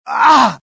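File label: death, dialogue generic Italian; mohaa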